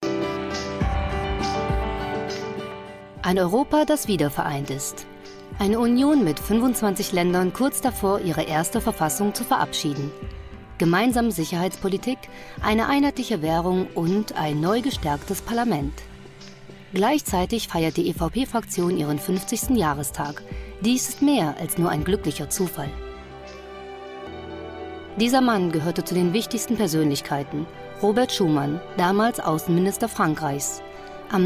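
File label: German, female, corporate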